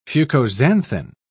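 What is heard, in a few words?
"fucoxanthin", British English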